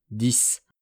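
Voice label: "dix", French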